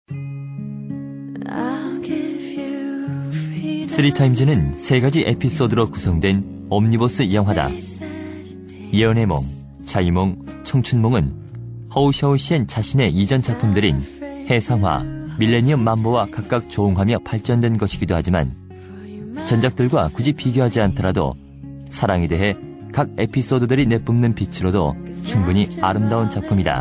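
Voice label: Korean male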